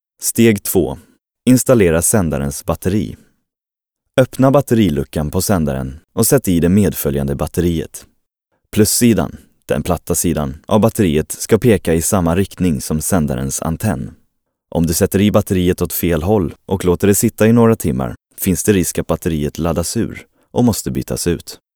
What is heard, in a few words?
se informative